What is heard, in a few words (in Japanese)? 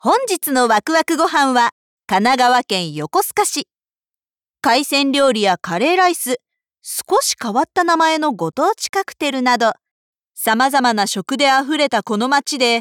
ナレーション